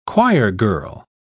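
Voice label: "choirgirl", British English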